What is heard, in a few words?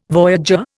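"voyageur", Indian English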